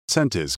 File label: Voice Over